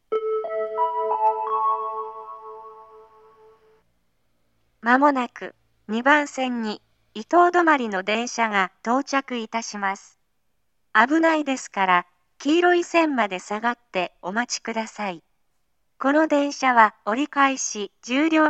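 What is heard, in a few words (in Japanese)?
接近放送 折り返し 10両編成 普通 小金井行きの自動放送です。, 合成音声型 (女性）; 折り返し 10両編成 上野東京ライン 普通 小金井行きの自動放送です。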